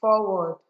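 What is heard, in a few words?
"forward", Nigerian Pidgin, female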